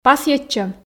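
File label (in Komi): "пасйӧдчӧм", Komi, female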